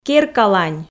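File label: "керкалань", Komi, female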